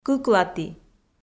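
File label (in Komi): "кыклаті", Komi, female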